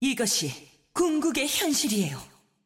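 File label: Korean; 秩序之光（守望先锋）; 终极技能; 主动/敌方释放语音; 韩语; 이것이 궁극의 현실이에요!; 这才是终极现实！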